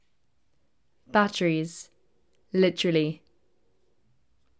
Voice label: British Batteries Literally, pronunciation